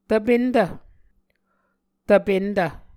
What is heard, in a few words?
"tvpentv", muscogee